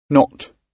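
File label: "not", British English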